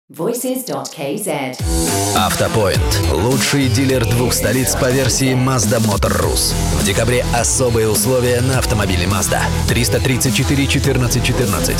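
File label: Russian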